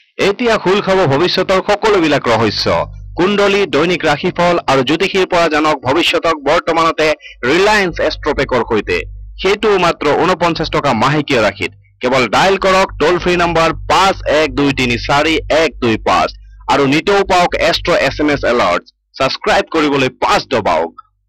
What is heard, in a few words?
ASSAMESE MALE